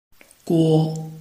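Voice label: "guo", Chinese, tone 1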